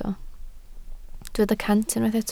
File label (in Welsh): "cant", Welsh